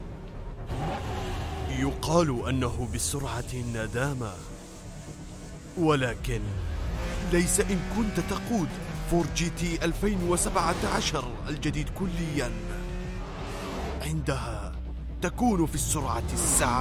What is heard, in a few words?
arabic commercial